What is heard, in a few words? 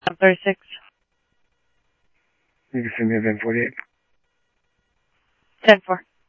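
Mesa police department, scanner audio trunk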